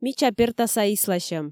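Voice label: "мича пертаса исласьӧм", Komi, female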